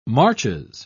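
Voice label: "marches", English, British English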